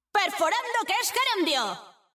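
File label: European Spanish; 探奇（守望先锋2）/终极技能; ¡Perforando que es ger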